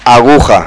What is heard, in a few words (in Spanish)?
"aguja", fonetica